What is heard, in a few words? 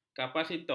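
"kapasitor", Malay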